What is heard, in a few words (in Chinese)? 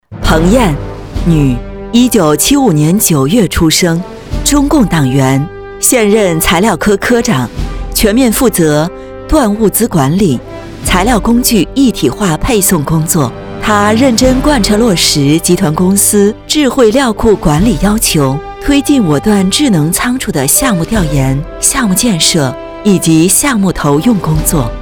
女 专题 创新